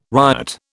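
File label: "ryot", British English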